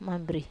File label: "mambiri", Wamesa